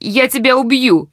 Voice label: threat distant, woman; Stalker Anomaly

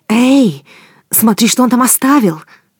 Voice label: search, woman; Stalker Anomaly

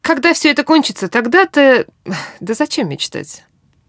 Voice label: idle, woman; Stalker Anomaly; characters voice human

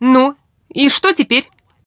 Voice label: no weapon, woman, talk

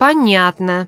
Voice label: reaction joke, human woman; Stalker Anomaly

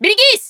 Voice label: grenade, human woman fight; Stalker Anomaly

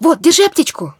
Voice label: medkit, human woman help wounded; Stalker Anomaly

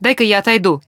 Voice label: back off, characters voice human woman threat

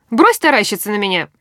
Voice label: drop weapon, human woman threat; Stalker Anomaly

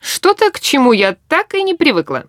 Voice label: idle, woman; Stalker Anomaly; characters voice human

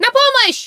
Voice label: help, human woman wounded heavy; Stalker Anomaly

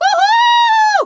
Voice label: attack, human woman fight; Stalker Anomaly

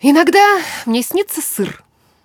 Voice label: idle, woman

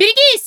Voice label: enemy, characters voice human woman fight; Stalker Anomaly

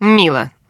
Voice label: reaction music, woman; Stalker Anomaly; characters voice human reactions

